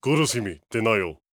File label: angry